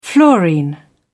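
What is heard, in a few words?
"fluorine", British English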